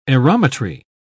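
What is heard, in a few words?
"aerometry", English, uk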